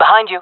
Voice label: following friend, fem; player vo jungle fem

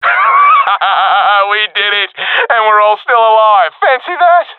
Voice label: round won no casualities, professional epic; vo; Counter Strike Model Pack; CS:GO

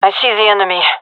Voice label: sees enemy, gendarmerie fem

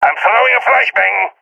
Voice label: balkan throwing flashbang; vo; balkan epic

